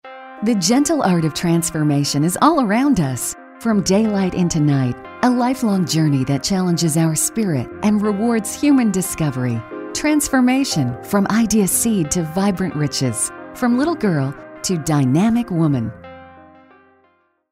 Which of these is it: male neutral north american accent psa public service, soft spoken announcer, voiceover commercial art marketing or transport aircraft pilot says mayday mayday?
soft spoken announcer